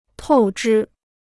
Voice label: "透支", Chinese

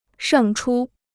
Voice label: "胜出", Chinese

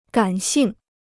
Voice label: "感性", Chinese

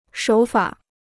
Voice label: "手法", Chinese

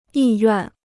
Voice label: "意愿", Chinese

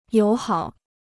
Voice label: "友好", Chinese

